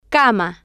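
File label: "cama", Spanish, female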